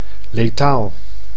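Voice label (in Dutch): "letaal", Dutch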